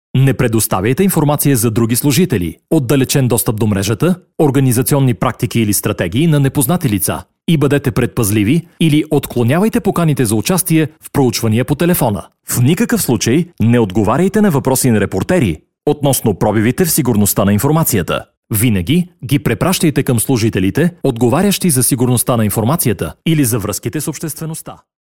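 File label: Bulgarian, male, narration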